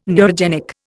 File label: "neurogenic", Indian